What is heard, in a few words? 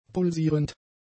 "pulsierend", German; adjectives